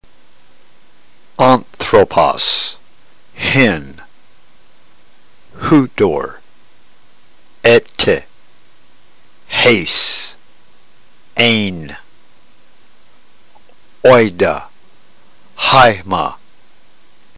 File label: drill, ntgreek